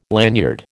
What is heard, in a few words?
"lanyard", American